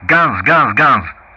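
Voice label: "guns", English; Ka-50; Player Messages; DCS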